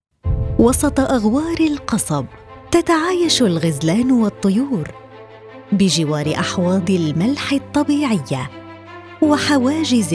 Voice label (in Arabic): وثائقي